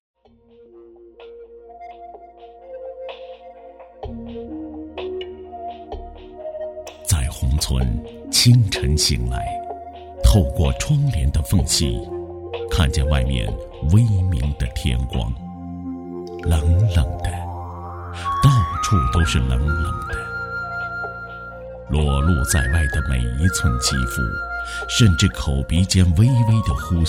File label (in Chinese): 男 纪录; 央视大气专题; 徽山徽水系列